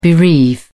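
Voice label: "bereave", English, British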